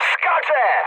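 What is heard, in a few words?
bailout, mood high, Polish speech; War Thunder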